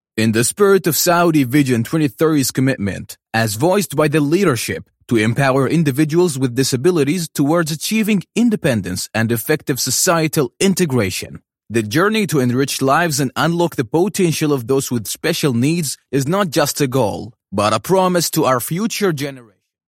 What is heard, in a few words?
us english future generations